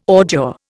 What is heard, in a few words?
"ordure", Indian